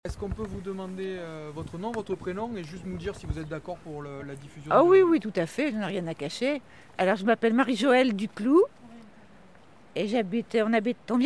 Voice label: MICROTROTTOIR CHARENTE SUITE; collège Vallée du Thoré

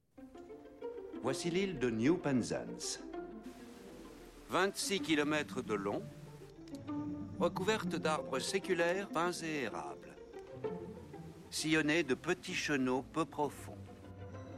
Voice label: Le narrateur VF

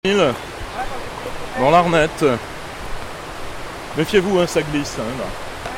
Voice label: MEFIEZ VOUS CA GLISSE; LPO; ligue de protection des oiseaux; college vallee du thore

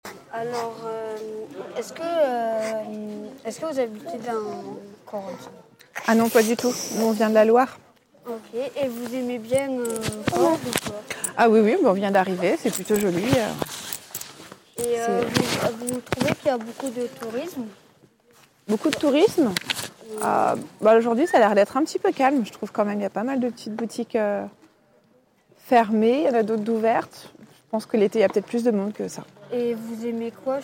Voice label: MICROTROTTOIR FEMME ACHAT CHOCOLAT

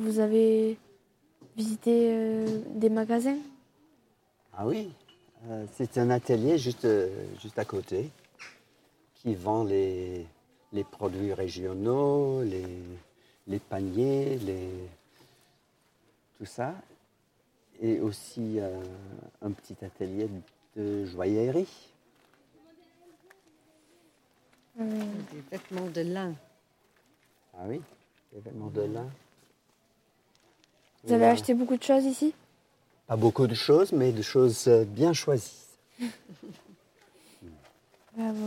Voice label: MICROTROTTOIR HOMME A CORDES

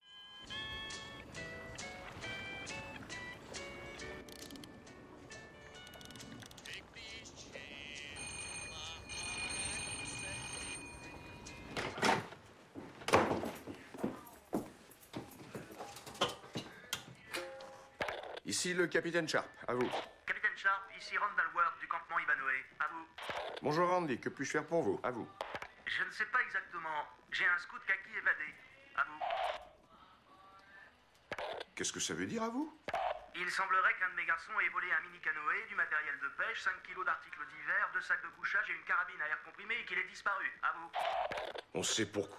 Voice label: Conversation radio VF

